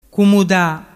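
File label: "cumuda", Vernazzese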